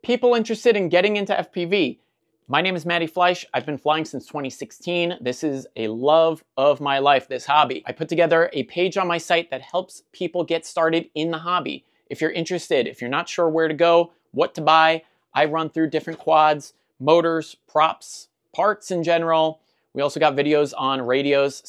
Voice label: raw audio